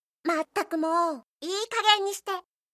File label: anime, Irritated & Angry Phrases from Anime Girls